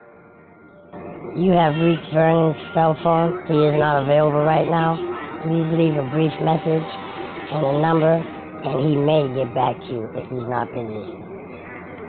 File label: Voicemail Greeting